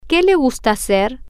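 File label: what do you like to make, Spanish, female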